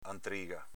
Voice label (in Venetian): "antriga", Veneto; antrìga s.f. ortica